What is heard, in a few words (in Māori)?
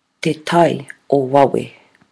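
"te tai o wawe", Māori word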